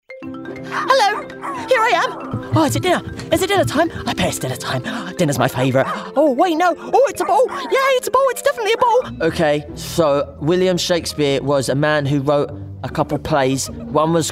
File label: Animation Reel